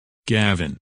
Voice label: "Gavin", names, men